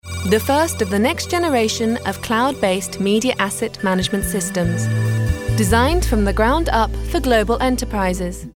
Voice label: female british english cloud comercial